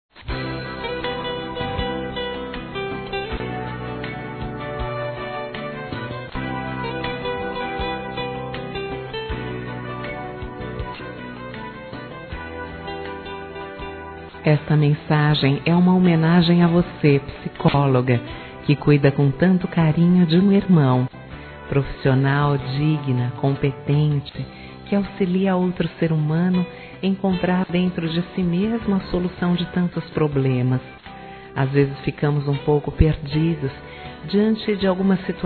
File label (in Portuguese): PSICÓLOGO F, Homenagens fem